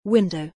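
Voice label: "window", English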